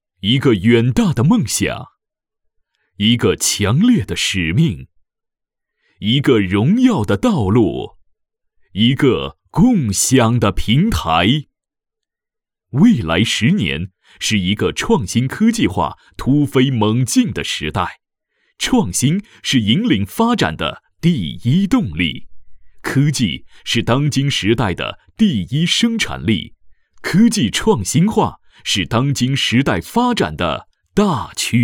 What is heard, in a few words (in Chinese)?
大气专题, 浑厚, 成熟; Arriival